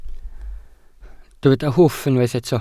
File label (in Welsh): "hwff" Welsh